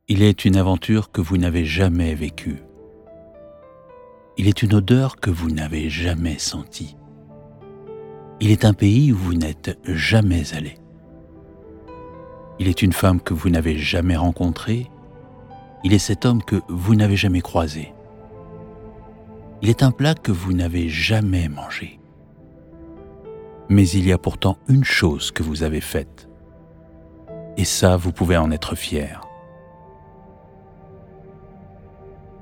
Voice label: French voiceover Natural theatrical